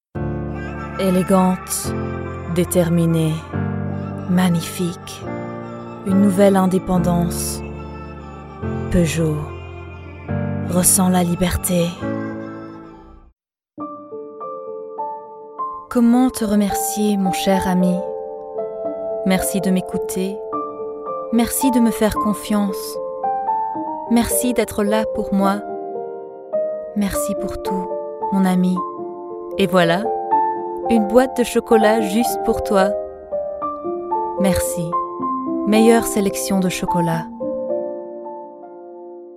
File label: French